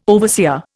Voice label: "overseer", Indian